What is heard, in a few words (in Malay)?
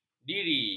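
"diri", Malay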